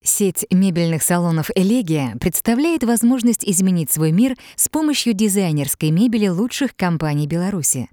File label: text fem